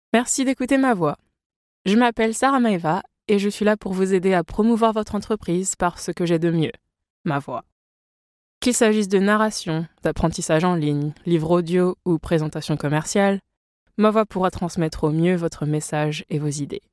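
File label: French, General; voice demos; GoLocalise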